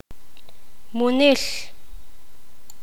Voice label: poqomam; fruta

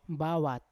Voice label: "bawat", Yawa; cold; unat

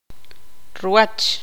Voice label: tzutujil; ancho

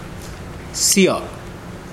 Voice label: Mokilese ear

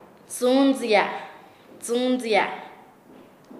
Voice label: Nasa Yuwe; estirarse; paez

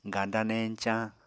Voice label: "ganda-neñcañ", Apatani; Material Culture

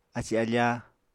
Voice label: "aci-afá", apatani

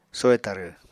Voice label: "soy tarw", Apatani

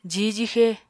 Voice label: "jihw-jixe", Apatani

